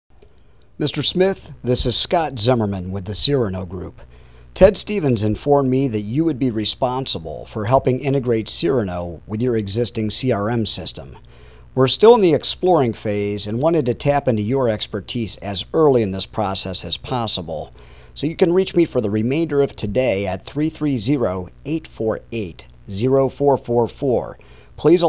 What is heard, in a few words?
Voicemail; Thinker